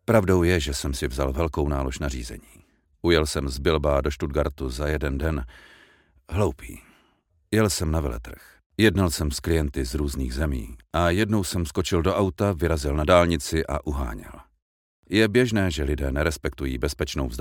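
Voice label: Czech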